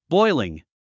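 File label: "boiling", English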